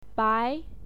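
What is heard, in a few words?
"bai", Mandarin Chinese, second tone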